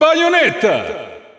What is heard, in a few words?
Italian Announcer; Bayonetta; SSBU